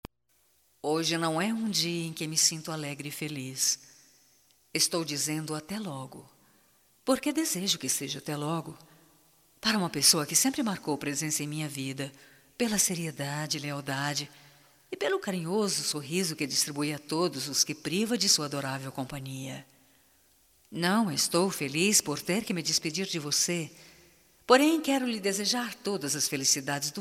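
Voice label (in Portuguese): BOA SORTE BOA VIAGEM, female, Telemensagens, Só Voz; Telemensagens 2017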